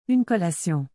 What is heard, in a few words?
"une collation", Québécois French